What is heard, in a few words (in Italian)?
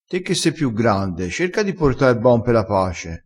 "porta r bon", crespinese